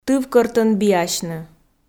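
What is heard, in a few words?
"тывкӧртӧн биасьны", Komi, female; audio dict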